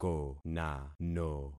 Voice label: "konano", concat syllables; Affixing experiment

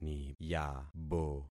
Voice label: "niyabo", concat syllables; Affixing experiment

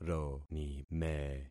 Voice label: "ronime", concat syllables; Affixing experiment

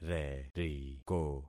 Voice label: "reriko", concat syllables; Affixing experiment

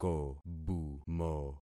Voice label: "kobumo", concat syllables; Affixing experiment

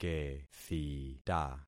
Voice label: "kethita", concat syllables; Affixing experiment

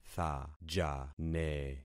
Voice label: "thajane", concat syllables; Affixing experiment

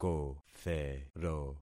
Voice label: "kothero", concat syllables; Affixing experiment

